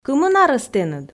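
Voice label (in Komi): кымын арӧс тэныд, Komi, female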